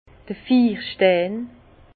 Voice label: "fiir stein", Bas Rhin; vin; pierre à fusil

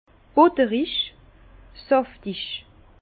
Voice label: "buttrig", Bas Rhin; fondant; vin

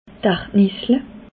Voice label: "ardnessle", Alsace

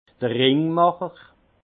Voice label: "ringmacher", alsace; vin

